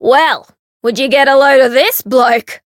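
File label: encounter fear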